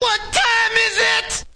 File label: "what time is it", male; MALE MISC